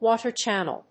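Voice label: "WATER CHANNEL", English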